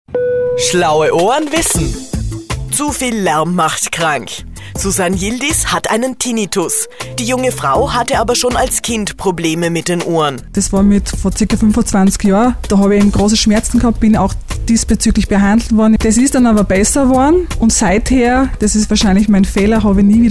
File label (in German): Interview